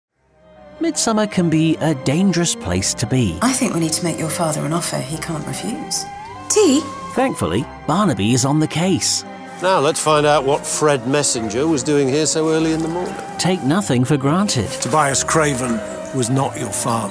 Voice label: TV Promos